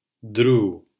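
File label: "dru", Spanish syllable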